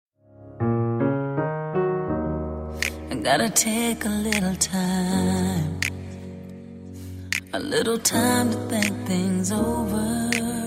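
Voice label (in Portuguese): Telemensagem Aniversário Ex Masculina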